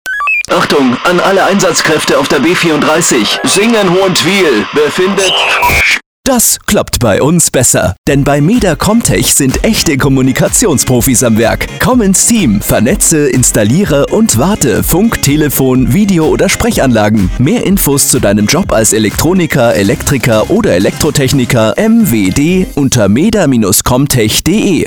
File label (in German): Funkspot Recruiting; RADIOMEDER; CommTech